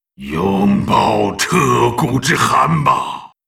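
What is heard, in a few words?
yes attack, Chinese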